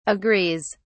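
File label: "agrees", British English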